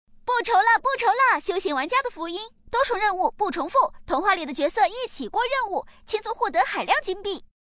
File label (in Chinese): 女5号广告 叫卖广告